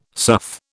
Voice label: "sough", British